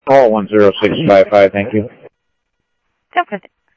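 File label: Sheriff Lake Patrol, scanner audio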